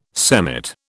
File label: "semmit", British